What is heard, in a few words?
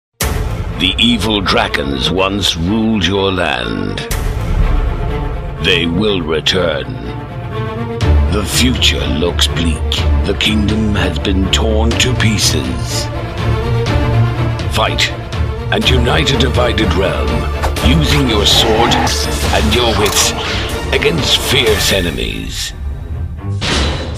Trailer